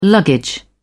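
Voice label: "luggage", British English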